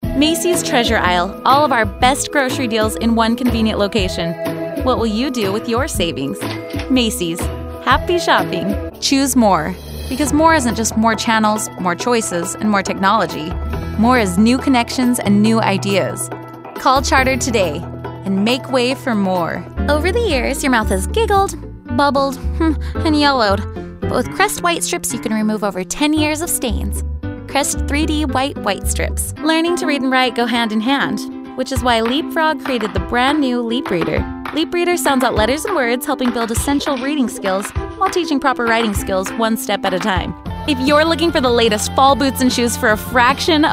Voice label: Commercial